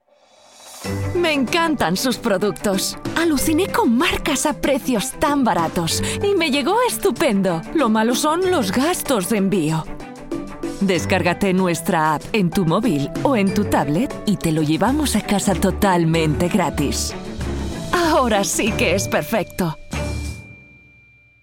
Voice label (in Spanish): joven